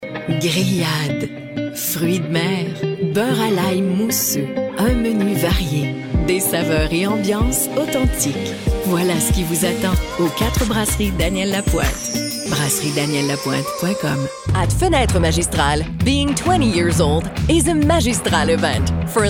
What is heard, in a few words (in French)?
Bilingue, Agence de voix